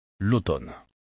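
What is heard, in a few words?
"l'automn", French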